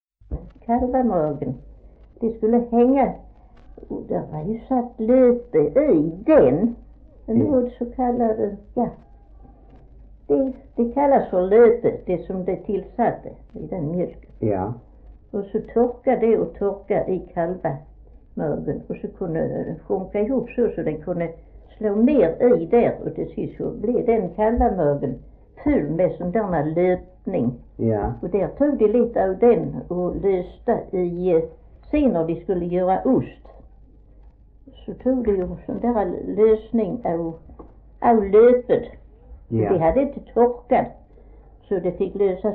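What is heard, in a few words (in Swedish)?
Fleninge Skåne, dialekter; Folkminnen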